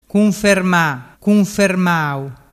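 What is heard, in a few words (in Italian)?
"cunfermamau", dialect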